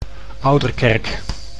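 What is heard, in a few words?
"Ouderkerk", Dutch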